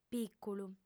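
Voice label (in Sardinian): "pìculu", female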